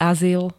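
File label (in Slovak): "azyl", slovenské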